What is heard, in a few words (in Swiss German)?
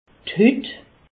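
"hut", Haut Rhin; medecine; peau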